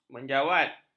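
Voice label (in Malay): "menjawat", Malay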